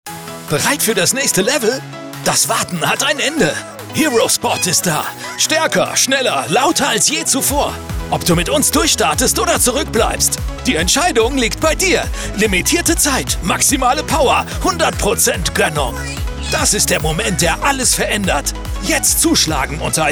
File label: german animation, German voice actor; Germany